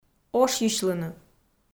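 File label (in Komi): "ошйысьлыны", Komi, female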